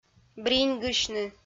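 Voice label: "бриньгысьны", Komi-Zyrian, female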